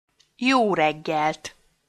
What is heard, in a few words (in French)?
"jó reggelt" en Hongrois